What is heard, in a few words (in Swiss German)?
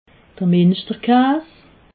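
"minschter kaas", Alsatian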